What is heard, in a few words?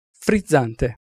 "frizzante", Italian Pronunciations